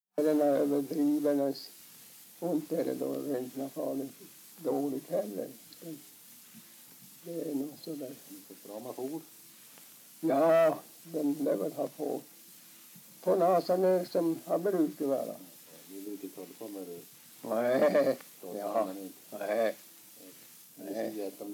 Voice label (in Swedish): dialekter; Folkminnen